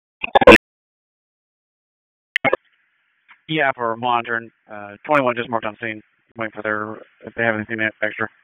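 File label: FIRE Operations, p25; FIRE OPS 2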